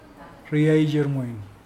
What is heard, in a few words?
brother, Mokilese